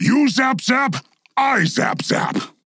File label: Taunt Directed; Paladins; Grohk